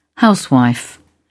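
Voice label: "housewife", British English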